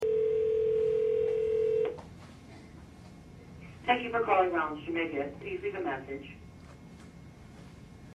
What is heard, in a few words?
Jamaica on Answering Machine